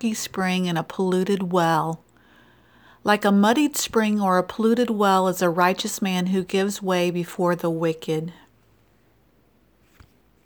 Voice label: Childrens Bible Verse